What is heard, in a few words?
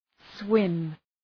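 "swim", English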